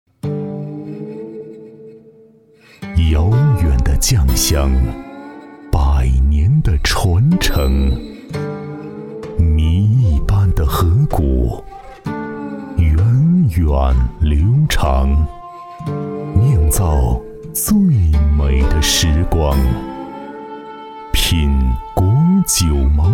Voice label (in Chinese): 男 广告 茅台酒 高端 大气 韵味; 酒类广告案例